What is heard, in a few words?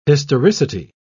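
"historicity", British English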